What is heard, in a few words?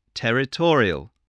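"territorial", English word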